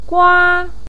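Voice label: "gua1", Mandarin; 瓜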